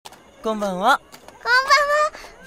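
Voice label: "konbanwa", Japanese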